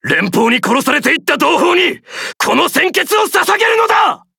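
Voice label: start, Japanese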